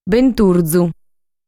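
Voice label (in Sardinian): "Benturzu", Sardinian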